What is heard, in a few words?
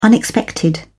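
"unexpected", British English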